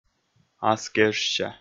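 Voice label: "аскежся", Komi, male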